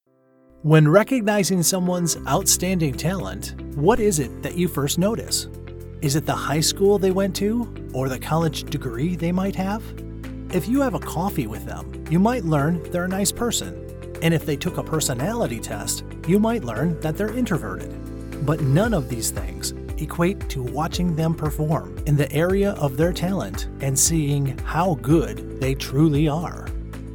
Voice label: Corporate

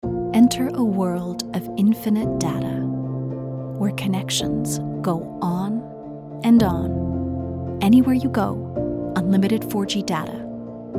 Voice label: Commercial

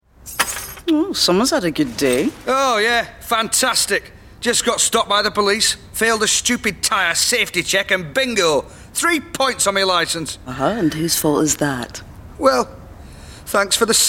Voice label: Advert; KwikFit

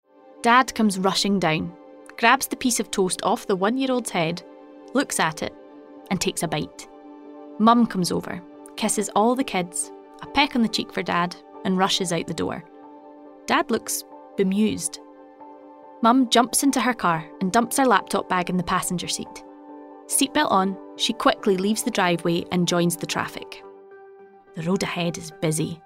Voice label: Explainer Film; Road Safety

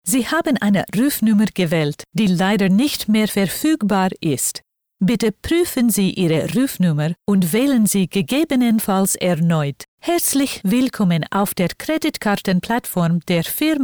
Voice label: German

